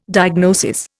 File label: "diagnosis", Indian